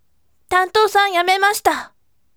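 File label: voice, bright; tantousan yamemashita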